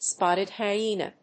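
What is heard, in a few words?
"spotted hyena", English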